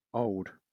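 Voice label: "old", British English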